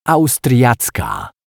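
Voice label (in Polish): "austriacka", Polish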